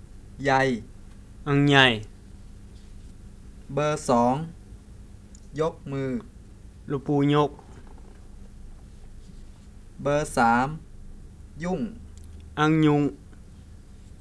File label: Bisu or Misu Wordlist